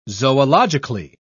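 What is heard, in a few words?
"zoologically", British English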